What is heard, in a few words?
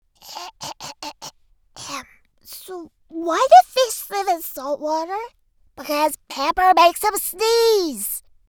Telling Joke Boy Voice